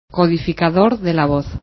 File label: vocoder